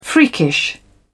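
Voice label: "freakish", British English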